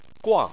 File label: "guang4", Chinese Phonetics